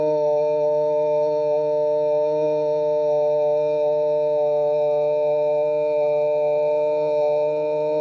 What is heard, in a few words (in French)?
"ooo", Voyelles; TEACH